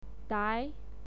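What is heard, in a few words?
"dai", Mandarin Chinese, second tone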